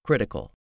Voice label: "critical", english; 3000 words